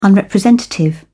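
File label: "unrepresentative", British English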